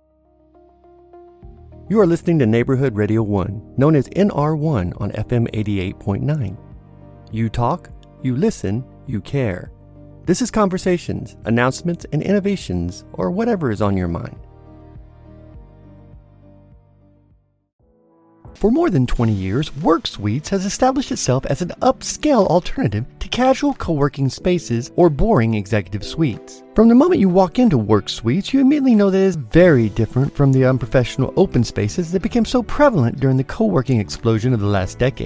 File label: Announcer and Commercial